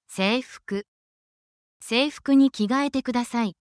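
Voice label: Japanese word, essential Japanese phrases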